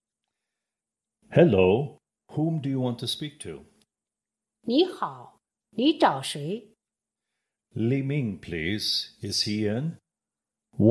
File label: Dialog